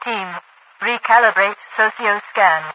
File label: recalibrate socioscan, radiovoice; Garry's Mod; hl2; overwatch